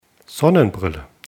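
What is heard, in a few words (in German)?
"sonnenbrille", German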